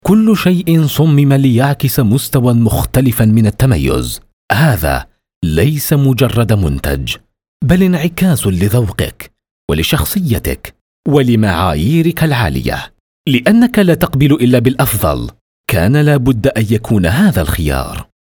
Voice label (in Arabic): تسجيل صوتي, voiceover ads